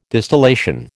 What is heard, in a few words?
"distillation", American